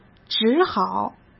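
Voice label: "只好" Mandarin Chinese; hsk; chinese-flashcards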